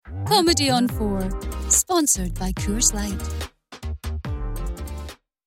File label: Ident; Channel 4